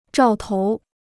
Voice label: "兆头", Chinese